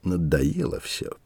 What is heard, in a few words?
mutter, voice; Escape from Tarkov